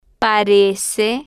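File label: "parece", Spanish, female, slow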